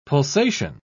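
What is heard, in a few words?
"pulsation", British English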